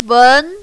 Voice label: "wen2", mandarin, female